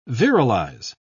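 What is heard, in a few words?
"virilize", English, British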